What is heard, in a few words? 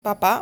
"Papà", Italian; lesson 6 articles plural